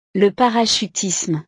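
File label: "le parachutisme", French; deportes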